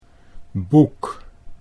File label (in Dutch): "boek", Dutch